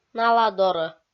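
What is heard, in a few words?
"наладоро", Komi, female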